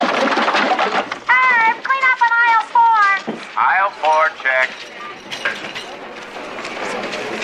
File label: woman on p a, Irv! clean up in aisle four, Irv on p a, aisle four check; pa system